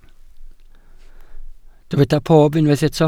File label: "pob", Welsh